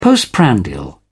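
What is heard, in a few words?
"postprandial", British English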